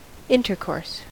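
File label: "intercourse", US English; pronunciations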